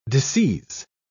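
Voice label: "disseize", British English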